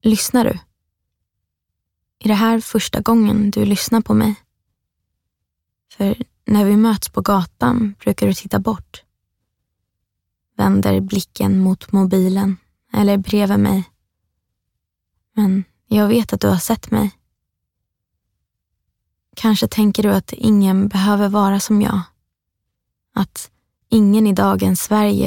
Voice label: F character